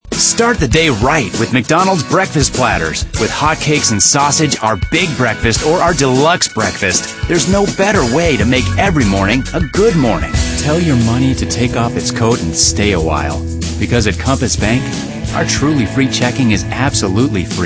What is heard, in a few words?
male, natural character